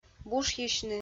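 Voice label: "бушйысьны", Komi, female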